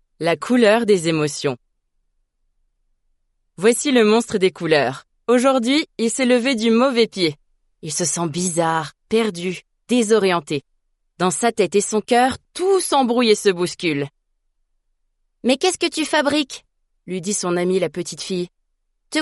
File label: french audiobooks, voice actors; dubladora profissional francesa; Audiolivros